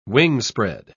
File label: "wingspread", pronounce